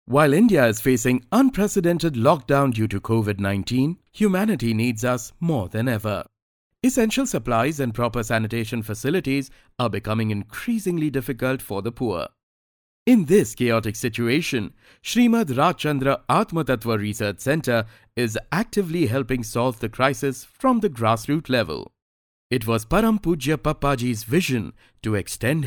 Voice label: documentary ENGLISH